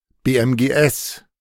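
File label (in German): "BMGS", German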